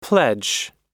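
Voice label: "pledge", American English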